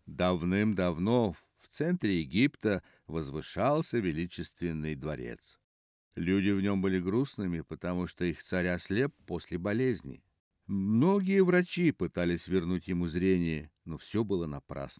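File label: russian male narration; voice demos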